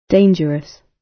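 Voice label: "dangerous", British English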